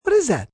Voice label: What Is that, male; Talking; Questions; human voice speaking; Voice Box